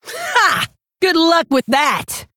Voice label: Female Orc; Rokara; Scabbs